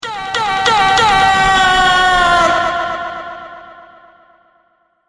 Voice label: gggoaaal